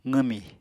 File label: "qwmi", Apatani